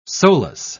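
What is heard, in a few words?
"solus", English, British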